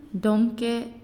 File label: "Sun", Naasioi